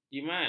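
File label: "jimat", Malay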